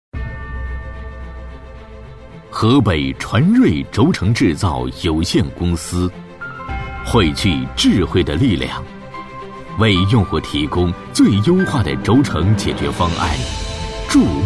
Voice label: 男 企业宣传 轴承; 男186-历史沧桑; 大气浑厚

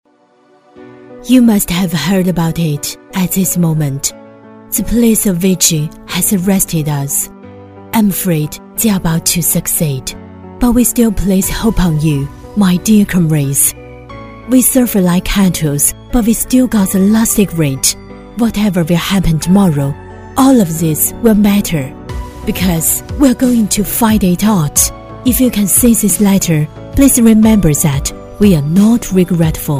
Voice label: 女-英文游戏信件【冷静大气】; 成熟厚重

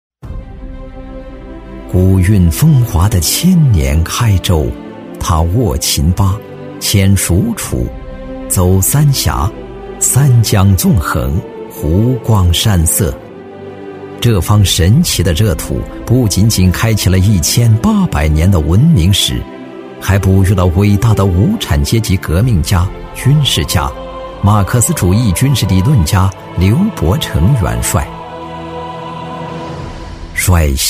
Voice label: 男, 宣传片旁白, 大气舒缓; 男35-历史感强 高端大气; 开州区人民医院高质量发展纪实

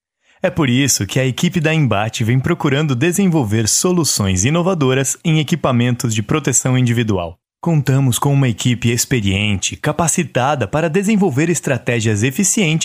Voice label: 葡萄牙男声

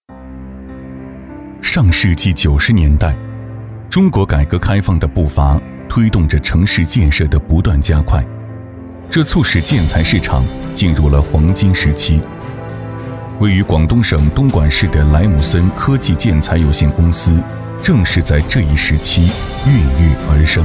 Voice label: 男 人物专题 大气讲述; 激情有力; 高端大气

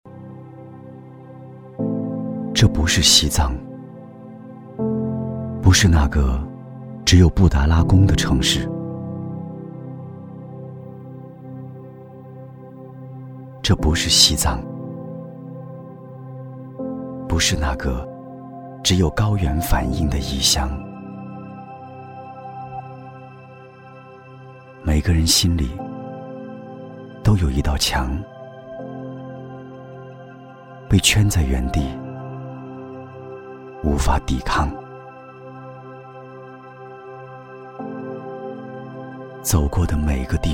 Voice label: 男 微电影 低沉走心; 并非西藏; 年轻多变; 质感磁性

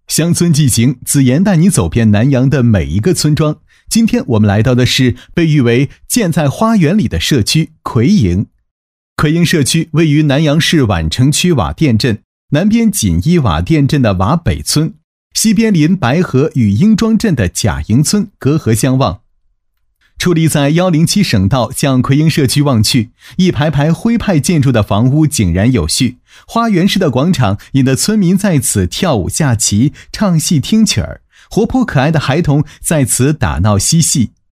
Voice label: 男 宣传片 轻松讲解; 年轻厚重; 年轻时尚; 乡村纪行

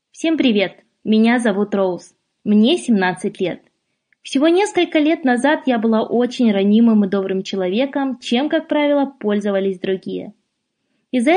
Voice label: 波兰语女声

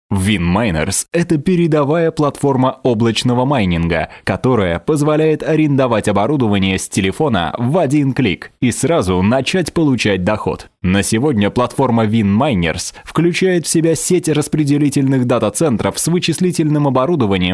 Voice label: 俄语男声

